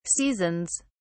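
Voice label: "seasons", British English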